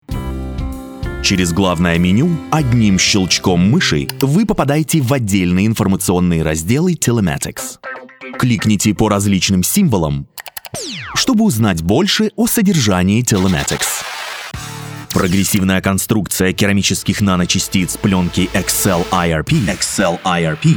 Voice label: Narration AudioBook Documentarie Educational Podcast eBook